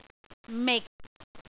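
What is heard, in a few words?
"mik6", Cantonese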